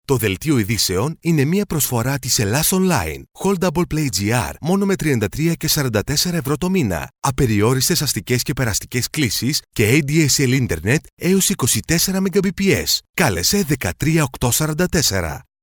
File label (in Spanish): locutor griego greek voiceover; Male Greek voiceover